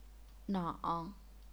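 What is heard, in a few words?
"nang", third tone, chinese pinyin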